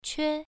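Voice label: "que", tone 1, pronunciation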